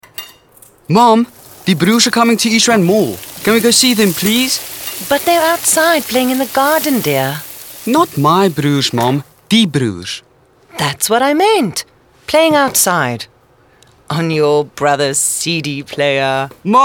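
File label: english south african female commercial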